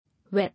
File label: "Wet", English